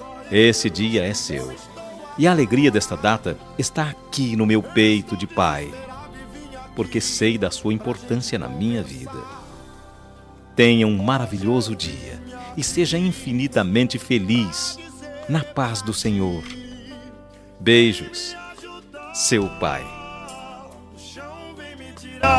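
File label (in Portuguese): aniversario evangelico para filha masculino, telemensagem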